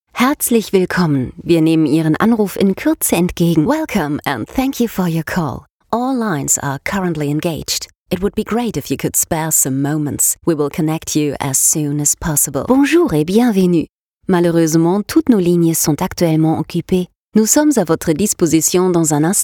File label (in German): Telefonansage DE EN FR